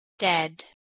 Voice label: "daed" Anglo Saxon